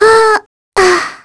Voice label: Dead, kr; Mirianne; kingsraid